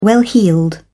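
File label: "well heeled", British English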